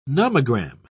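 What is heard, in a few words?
"nomogram", British English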